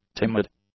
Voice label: "timid", English; adjectives